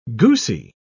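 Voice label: "goosy", British English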